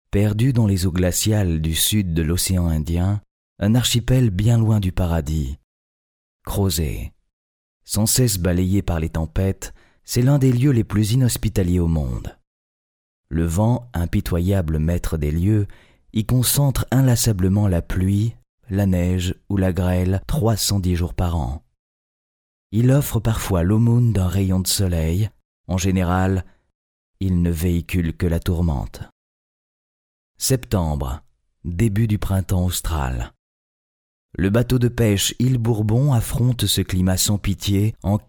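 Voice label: French male documentary; Français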